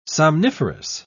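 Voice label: "somniferous", British English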